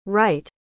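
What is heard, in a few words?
"WRITE", English; school instructions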